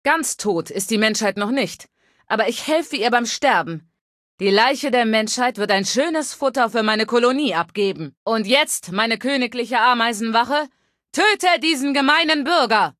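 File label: Female adult default speech challenge failure; Kategorie:Fallout 3: Audiodialoge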